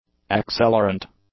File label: "accelerant", english; adjectives